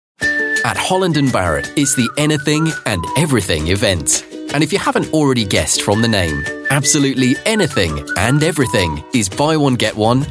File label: commercial, British-Westcountry Male Voice